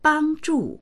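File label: "bang zhu", Mandarin; 帮助; 助ける、援助する; dictionary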